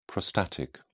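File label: "prostatic", British English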